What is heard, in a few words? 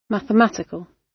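"mathematical", British; Study, education, research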